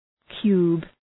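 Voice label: "cube", English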